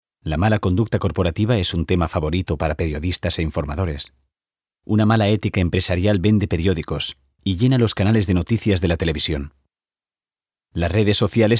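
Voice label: Spanish informative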